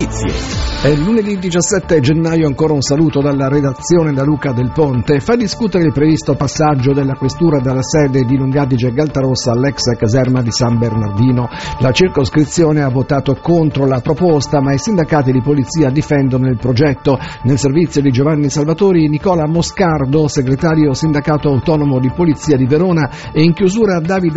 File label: Radio; Verona